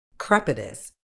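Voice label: "crepitus", British English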